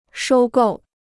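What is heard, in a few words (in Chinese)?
"收购" Chinese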